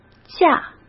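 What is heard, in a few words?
"嫁", Mandarin Chinese; chinese flashcards; hsk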